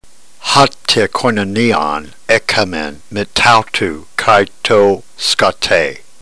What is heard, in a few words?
drill, ntgreek